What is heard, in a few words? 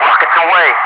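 "rockets away", English speech, Wingman; Messages; DCS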